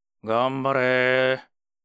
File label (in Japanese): ouen, ASMR